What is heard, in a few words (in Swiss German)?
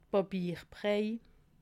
"papierbrei", alsace